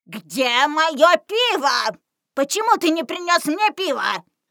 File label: granny error; npc